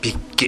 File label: "bikki", minamiyamagata; 蛙。〈べっき〉とも。